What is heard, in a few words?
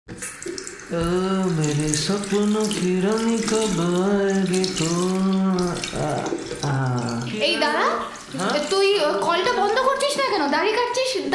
SAVE WATER PROMO